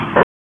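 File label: Raleigh Police North District Tactical, p25; wake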